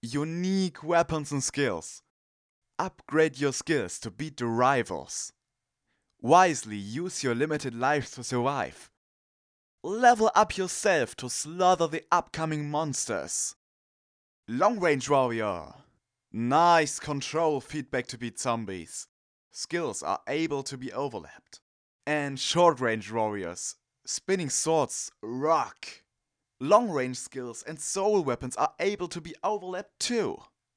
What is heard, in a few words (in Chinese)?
德语男 德国口音的英语